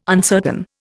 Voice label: "uncertain", Indian